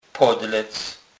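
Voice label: "podlec", Polish, Kurpie dialect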